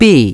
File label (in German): "B geschlossen", Navigation; DISTEFORA Navigation; Mobile Navigator Notebook